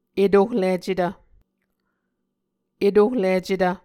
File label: "etohlicetv", muscogee